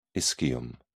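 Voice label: "ischium", British English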